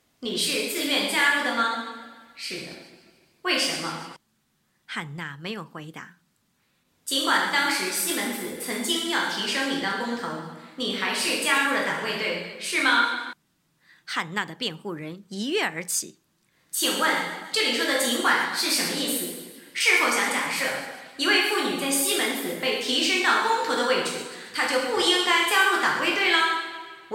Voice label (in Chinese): 剧情配音; 党卫队剧情配音